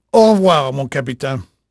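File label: French male, voice; Pirates Of The Caribbean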